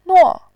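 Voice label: "nuo", Mandarin, tone 4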